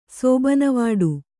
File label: "ಸೋಬನವಾಡು", kannada